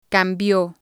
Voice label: "cambio", spanish

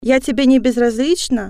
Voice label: do you love me female, russian

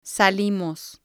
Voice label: "salimos", spanish

